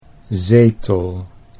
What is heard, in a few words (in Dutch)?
"zetel", Dutch